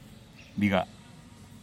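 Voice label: "digaa", Teotitlán del Valle Zapotec; necklace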